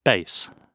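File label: "base", British English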